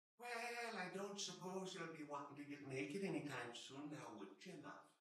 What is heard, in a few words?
pirate voice horny; goldentavern